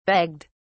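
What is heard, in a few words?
"begged", en, uk British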